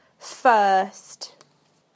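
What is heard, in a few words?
"first", British English; PictureDictionary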